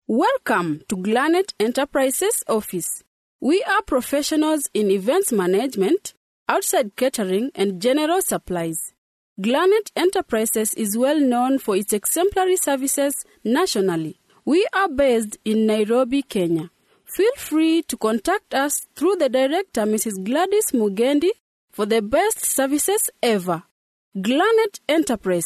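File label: Swahili, female, English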